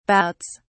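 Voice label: "bouts", British English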